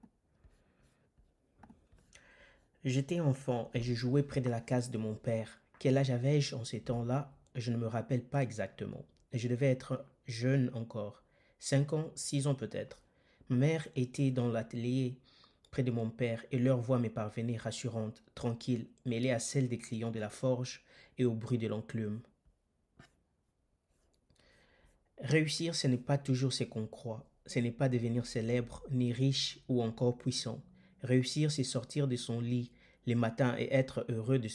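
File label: African French, General; voice demos; GoLocalise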